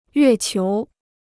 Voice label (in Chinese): "月球", Chinese